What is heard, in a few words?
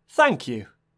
"Thank You", British Male